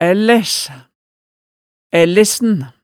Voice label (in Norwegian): "ællæs", hemsedalsmaalet, tale